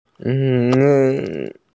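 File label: "min2", Mandarin Chinese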